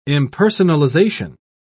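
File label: "impersonalization", British English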